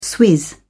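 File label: "swizz", British English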